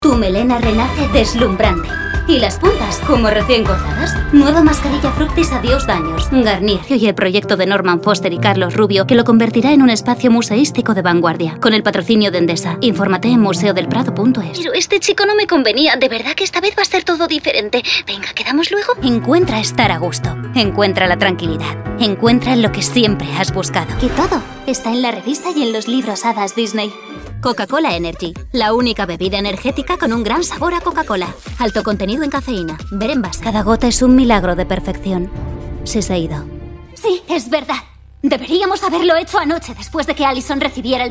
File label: ads dubbing